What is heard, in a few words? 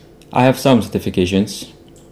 interviewee male